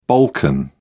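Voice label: "balkan", British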